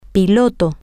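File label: pilot, Spanish, female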